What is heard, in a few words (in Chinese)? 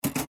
渣女音效